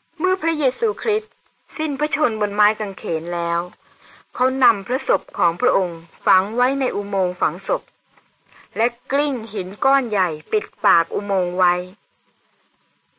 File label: good news short thai central